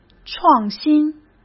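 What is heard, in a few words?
"创新", Mandarin Chinese; hsk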